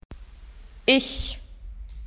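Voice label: "I", Australian English; PictureDictionary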